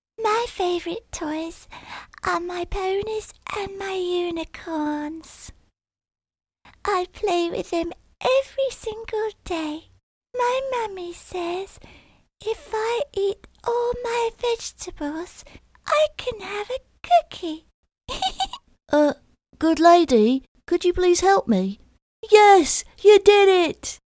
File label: CHILDRENS VOICES GIRL THEN BOY